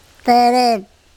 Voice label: "tErep", andamanese; ant

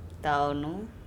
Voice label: taonu sit, andamanese

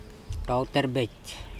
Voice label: cloud TaotErbec, Andamanese

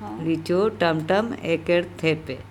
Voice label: "lico tamtam ekErthepe", Andamanese; scolds

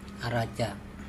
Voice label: "araca", Andamanese; nest

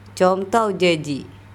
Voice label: "cOngtaujeiji", Andamanese; he eats with chopsticks

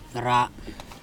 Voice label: "ra" pig, andamanese

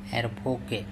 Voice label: "Er pHoke", Andamanese

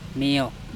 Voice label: stone, Andamanese; meo

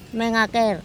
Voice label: "mengakEr", andamanese; our neck

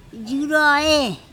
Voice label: "jurOe", Andamanese; water

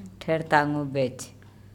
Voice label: "ThErtangobec", Andamanese; my sideburns

